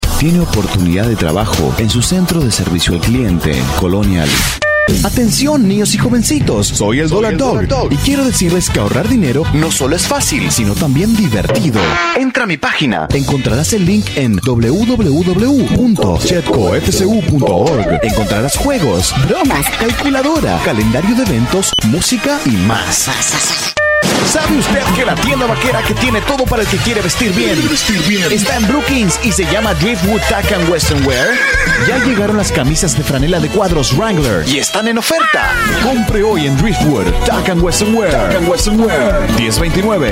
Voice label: spanish voice over male commercial

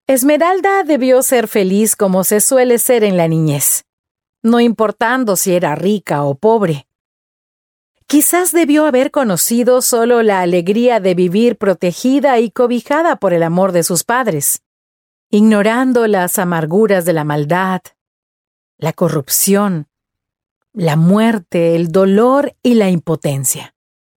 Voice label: spanish voice over female audiobook

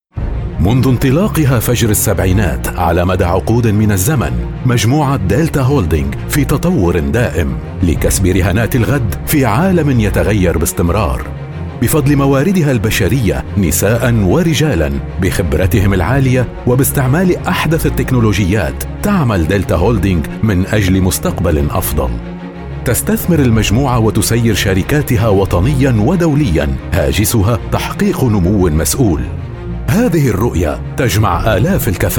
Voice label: arabic voice over male narrator

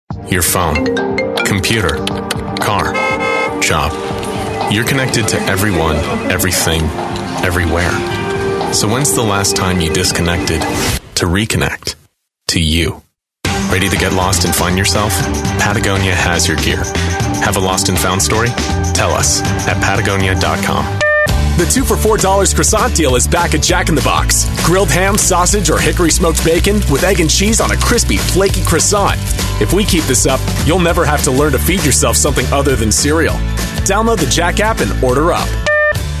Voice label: english american voice over male commercial

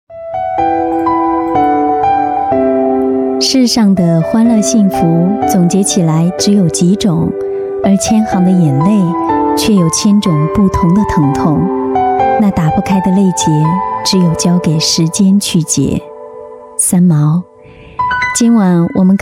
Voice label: chinese voice over female audiobook

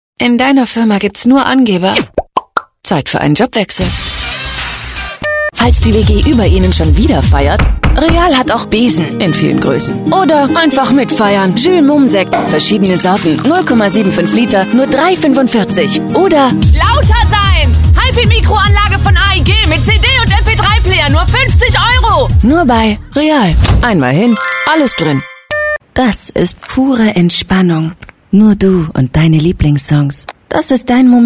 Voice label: germany voice over female commercial

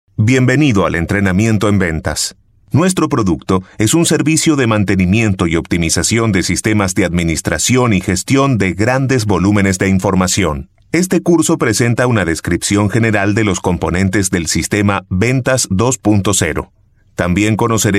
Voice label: spanish voice over male commercial

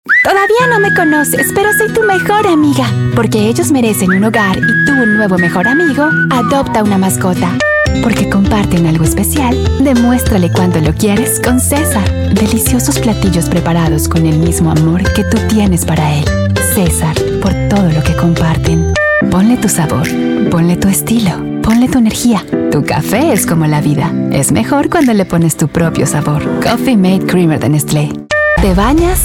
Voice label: spanish voice over female commercial

